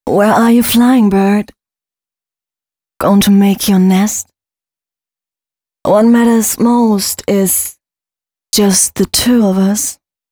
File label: female spoken hooks, dance ultra voice